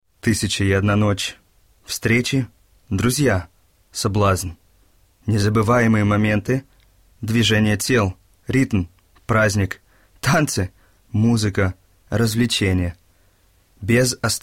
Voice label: Russ text